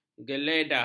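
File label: "geledah", Malay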